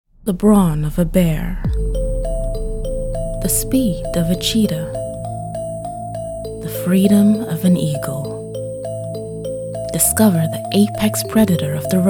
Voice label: TV Commercial w Music; Apex